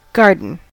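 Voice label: "garden", US English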